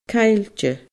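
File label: "caillte", Scottish Gaelic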